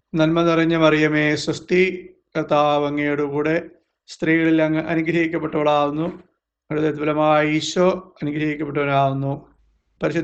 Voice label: hail mary malayalam